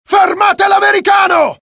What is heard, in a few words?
alert, dialogue IT; mohaa; attack